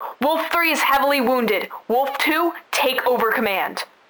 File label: Wound, Heavy Damage Radios; ISBSpecialForces; Aishi; Wolf Three